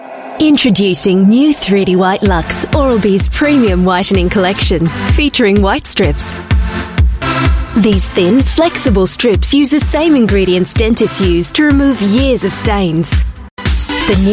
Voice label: Asian English Australian Accent